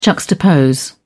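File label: "juxtapose", British English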